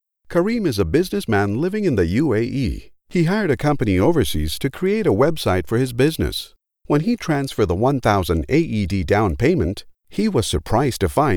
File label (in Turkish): Amerikan Ingilizcesi Erkek